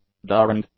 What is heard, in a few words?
"darned", English; adjectives